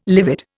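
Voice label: "livid", Indian English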